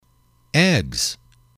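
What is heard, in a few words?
"eggs", english, male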